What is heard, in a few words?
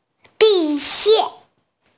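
"graduation", hearing impaired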